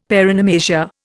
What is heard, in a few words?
"paronomasia", Indian; Dictionary